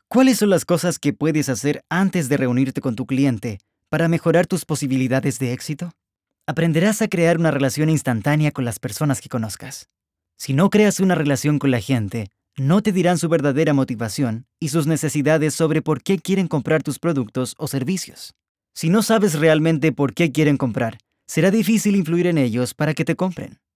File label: spanish latin american audiobooks